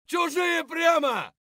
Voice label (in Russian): "chujie pryamo" scream; tarkov